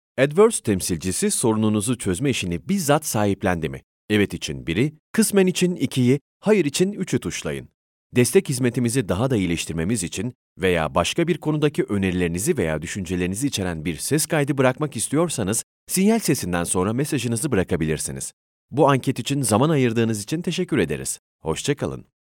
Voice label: Ivr